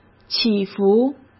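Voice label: "起伏", Mandarin Chinese; hsk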